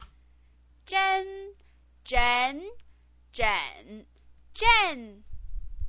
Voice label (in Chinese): "zhen", pinyin